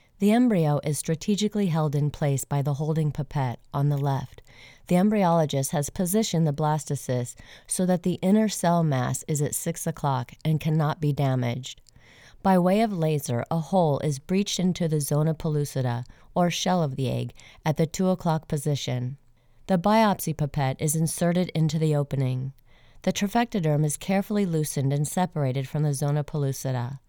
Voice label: Medical Explainer